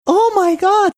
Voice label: saying oh my god high pitched